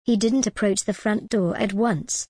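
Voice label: British English, example, he didn't approach the front door at once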